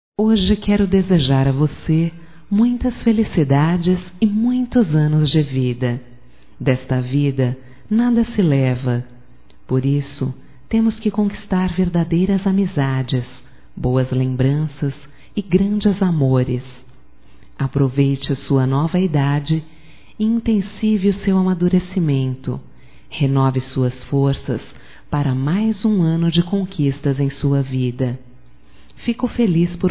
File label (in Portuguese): ANIV NEUTRO, fem; Aniversarios; Telemensagens; Telemensagens 2017